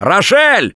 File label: friendly fire, russian, Survivor Voice; producer; Left 4 Dead 2; Gambler